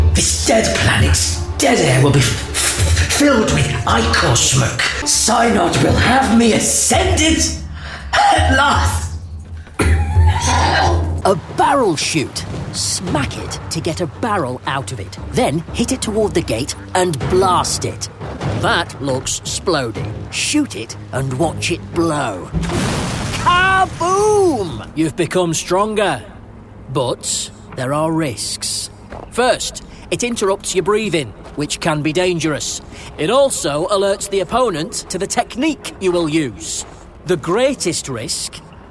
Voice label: gaming, game dubbing male voices british accent